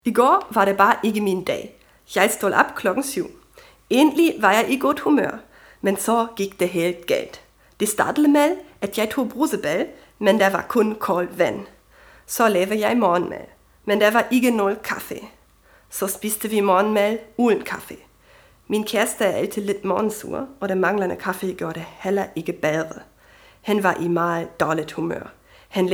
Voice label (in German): Daenisch Lesung